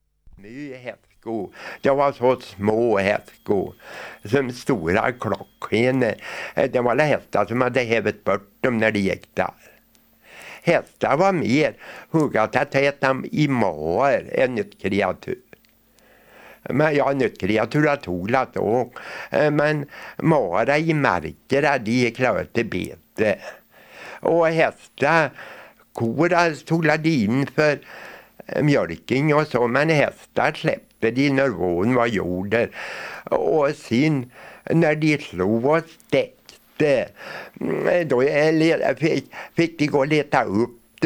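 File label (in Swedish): Vesene Västergötland, dialekter; Folkminnen; Svenska sagor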